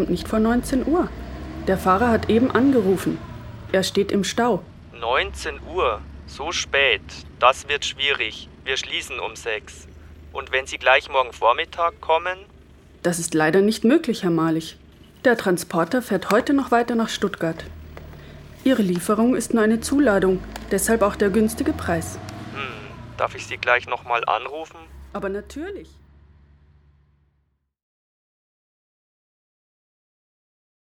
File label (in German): Diktat Galerie Kunst Telefonat